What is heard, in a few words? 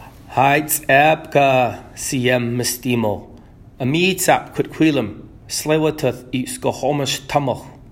Halkomelem greeting; Tsleil-Waututh Nation